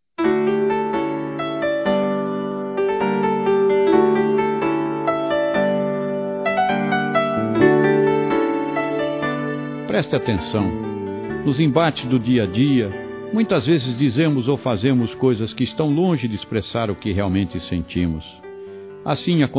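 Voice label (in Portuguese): desculpa amizade masculino; Desculpas; Telemensagens 2017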